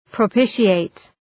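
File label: "propitiate", English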